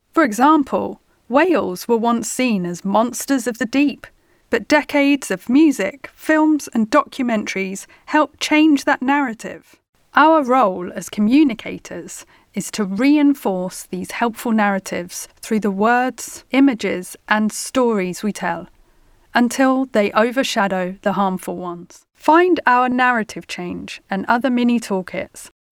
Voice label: Narration; Animal Think Tank